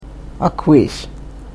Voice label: "akviish", karuk